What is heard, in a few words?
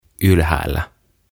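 "Ylhäällä", Finnish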